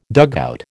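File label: "dugout", American English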